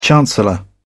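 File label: "chancellor", British English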